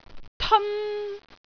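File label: "teon1", Cantonese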